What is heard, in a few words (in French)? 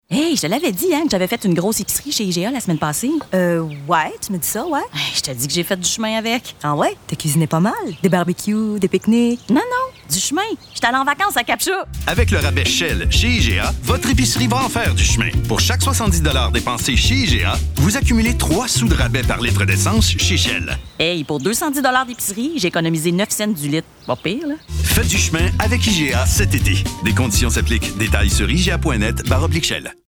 promo fr; iga promo shell